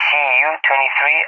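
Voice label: "ZU-23", English, SPAIN; AirDefence; DCS; Allied Flight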